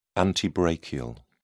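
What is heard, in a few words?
"antebrachial", British English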